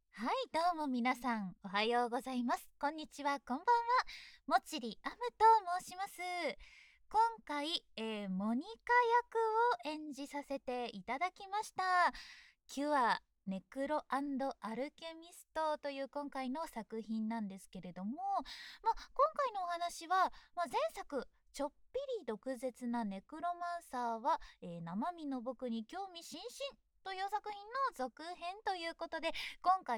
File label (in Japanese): PRボイス